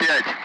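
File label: Speech Sound Russian Common Allied Flight; Digits; DCS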